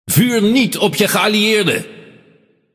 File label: Speech Friendly Fire Hit Alert, NL; World Of Warships